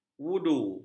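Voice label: "voodoo", Malay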